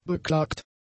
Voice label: "beklagt", German; adjectives

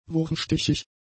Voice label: "wurmstichig", German; adjectives

